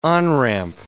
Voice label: "on-ramp", English

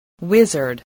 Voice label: "wizard", voice, english

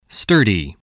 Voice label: "sturdy", spelling

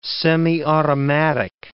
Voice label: "semiautomatic", English

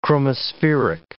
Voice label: "chromospheric", English

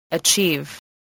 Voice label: "achieve", English voice

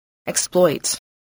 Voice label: "exploit", voice

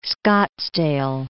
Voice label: "Scottsdale", English

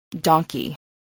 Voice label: "donkey", spelling

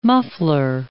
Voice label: "muffler", English

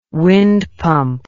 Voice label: "windpump", English

